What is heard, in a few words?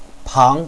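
"pang2", Chinese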